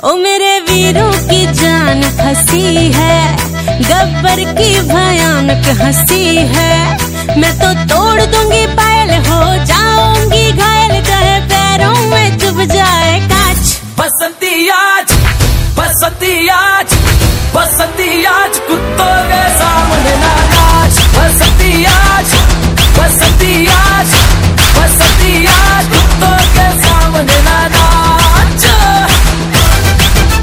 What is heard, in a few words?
Female Voice Ringtone